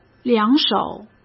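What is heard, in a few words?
"两手", Mandarin Chinese; hsk; chinese-flashcards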